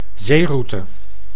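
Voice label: "zeeroute", Dutch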